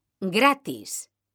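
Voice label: "gratis", Spanish, female; mekanta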